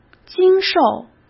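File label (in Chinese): "经受", Mandarin Chinese; chinese-flashcards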